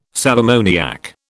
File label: "sal ammoniac", British